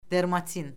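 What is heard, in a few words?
"dermatin", Azerbaijani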